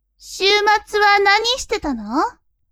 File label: woman